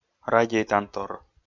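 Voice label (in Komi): "радейтантор", Komi, male; audio dict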